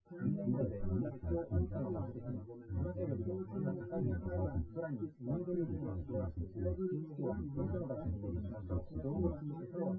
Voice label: male synthconv